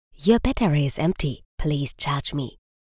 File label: Voice Notification